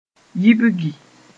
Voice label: "yibgi", mos-en; forget